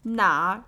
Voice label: "Nar", Chinese